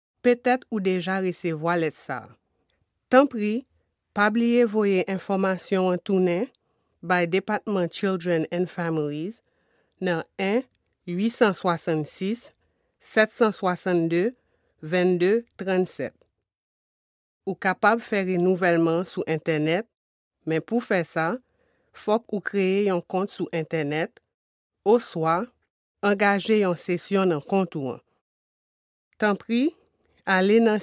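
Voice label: Haitian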